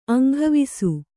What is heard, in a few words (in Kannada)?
"ಅಂಗವಿಸು", Kannada